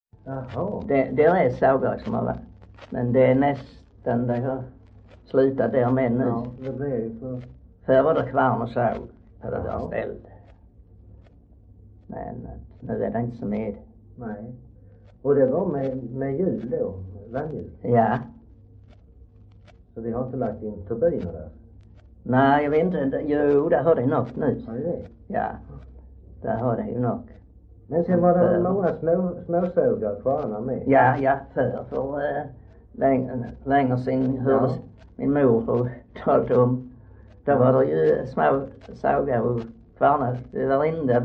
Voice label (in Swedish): Röke, dialekter; Folkminnen